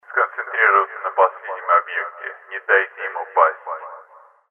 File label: announce; Dystopia; exodus